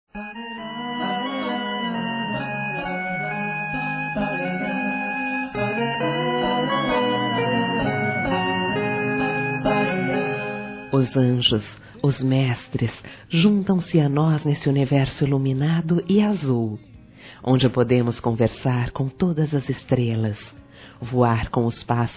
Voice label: AMIZADE MISTICA F, Telemensagens

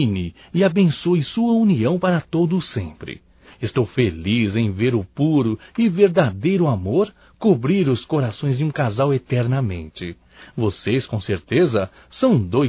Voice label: PARABENS PELO CASAMENTO DO AMIGO (M); Casamentos

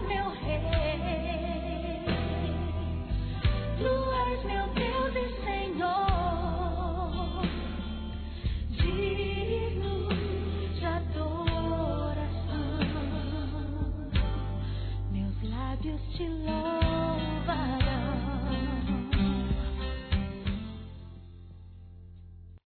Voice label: ANO NOVO NEUTRO F; Telemensagens; Natal e Ano novo; fem; Misto

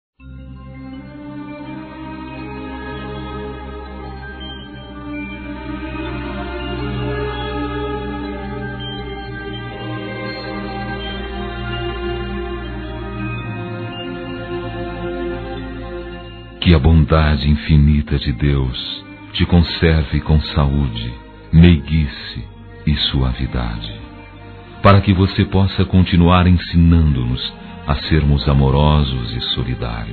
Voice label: Neutro Católico masculino; Aniversários; Aniversários diversos

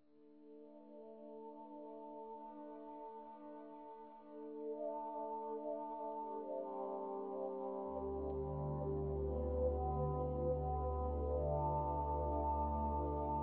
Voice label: SOGRA F, Telemensagens, Dia das Mães; Telemensagens 2017

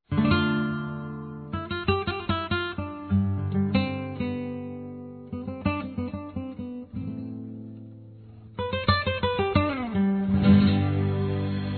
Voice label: ANIV. DE CASAMENTO ROMANTICO F; Aniversários; Aniversários diversos; fem

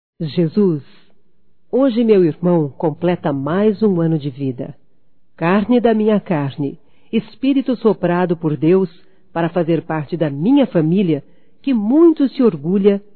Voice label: Aniversário Feminino, Telemensagens

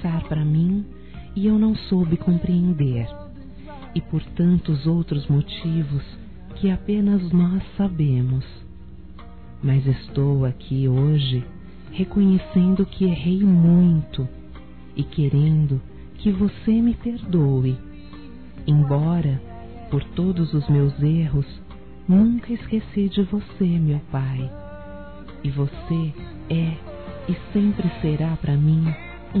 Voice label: DESCULPAS F, Telemensagens Dia dos Pais; Telemensagens 2017

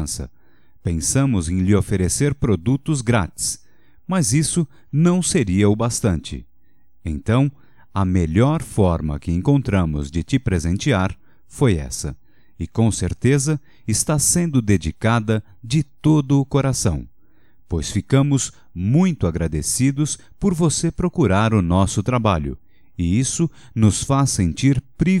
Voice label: Anivers cliente, Só Voz, masc; Telemensagens; Telemensagens 2017

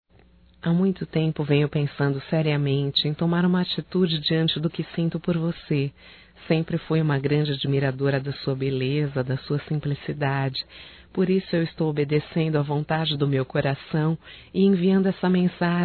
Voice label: CONVITE PARA SAIR (F); Românticas

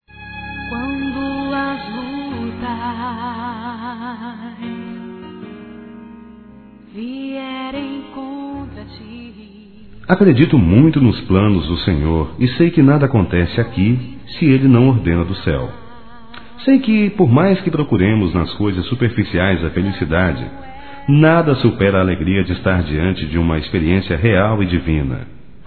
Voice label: Evangélico Aniversário Romentico Suave Masculino

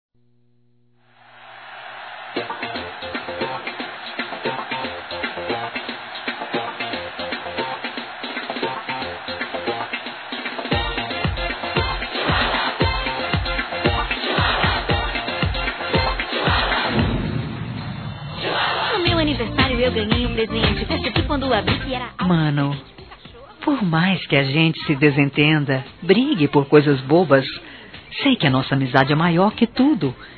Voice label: Aniversário Irmão Feminino; Aniversário Infantil; Telemensagens

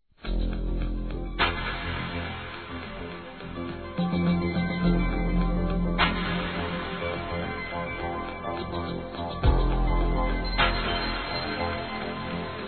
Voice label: Ano Novo Infantil Masculino, Telemensagens; Natal e Ano novo

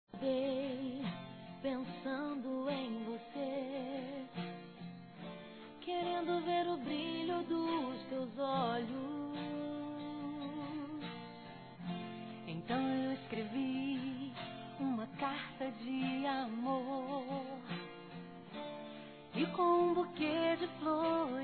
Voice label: NATAL ROMANTICO F; Telemensagens; Natal e Ano novo; fem

